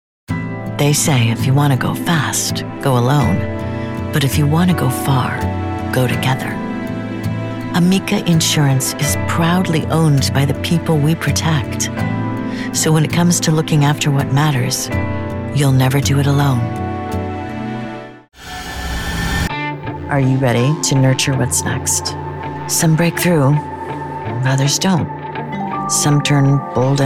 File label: Voice over